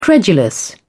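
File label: "credulous", British English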